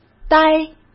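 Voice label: "呆", Mandarin Chinese; chinese-flashcards; hsk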